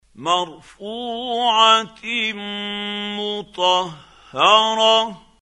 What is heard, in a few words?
quran, Hussary, tafseer; Abasa